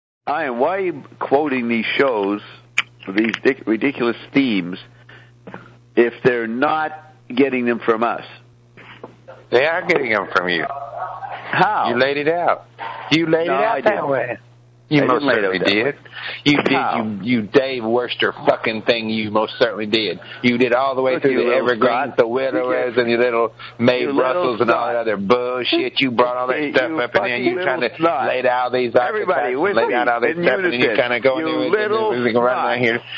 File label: rant